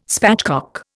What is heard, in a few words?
"spatchcock", Indian